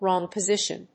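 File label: "wrong position", English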